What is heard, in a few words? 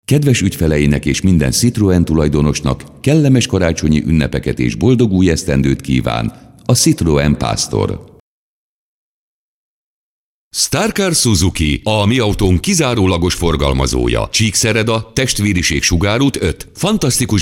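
hungarian male voice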